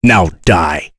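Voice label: Vox Skill, voices heroes English; Kain; kingsraid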